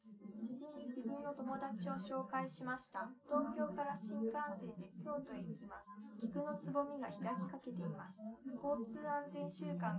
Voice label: female, live rec, 250ms, 5cm

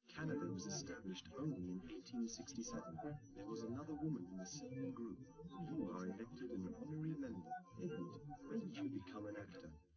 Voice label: male, live rec, 130ms, 1m

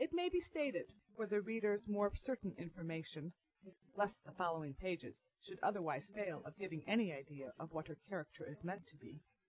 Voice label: female; SASSEC07

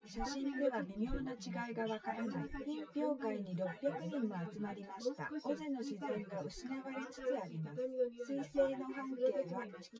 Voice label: test female synthconv 130ms 1m src; SiSEC08; SiSEC underdetermined

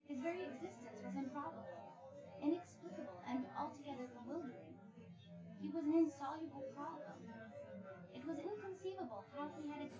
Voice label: female synthconv 5cm; SASSEC07

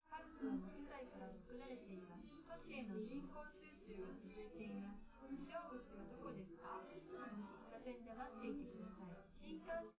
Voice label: test female live rec; SiSEC11; underdetermined

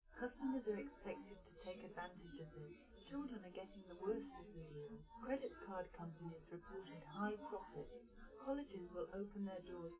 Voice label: female live rec; SiSEC10; underdetermined

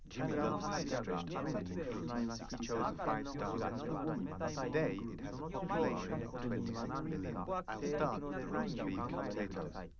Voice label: male liverec 130ms 5cm mix

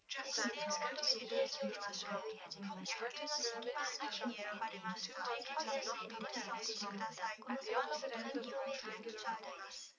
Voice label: female4 liverec 250ms 5cm src

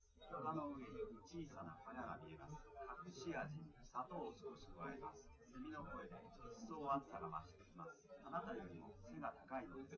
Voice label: test male live rec; SiSEC11; underdetermined

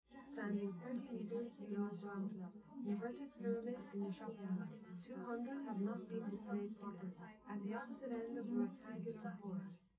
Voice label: female live rec

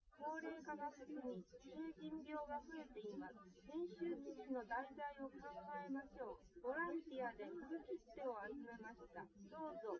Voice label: female, live rec; SiSEC08; SiSEC underdetermined

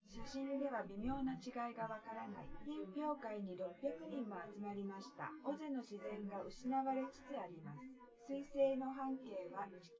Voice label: female, synth conv, 130ms, 5cm; SiSEC10; underdetermined

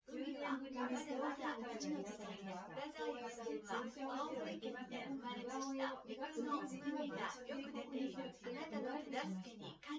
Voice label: female synthconv 250ms 5cm; SiSEC08; SiSEC underdetermined

